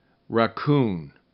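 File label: "raccoon", English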